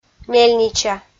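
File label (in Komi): "мельнича", Komi-Zyrian, female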